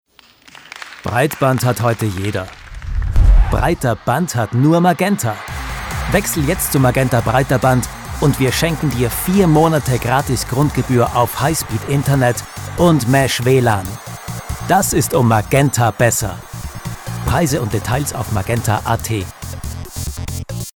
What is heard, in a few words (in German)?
Demo Werbung; Magenta; Sprecherdatenbank